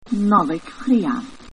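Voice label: christmas Irish; Nollaig chridheil; Scottish Gaelic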